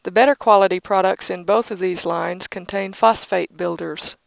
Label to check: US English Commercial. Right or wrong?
Wrong